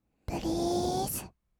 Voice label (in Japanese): "プリーズ", kawaii voice; ASMR; 1200 kawaii voice!!; 音ゲー